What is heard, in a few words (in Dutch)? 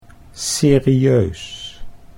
"serieus", Dutch